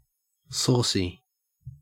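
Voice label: "saucy", Australian English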